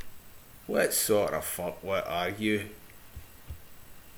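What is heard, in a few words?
"fuckwit", slang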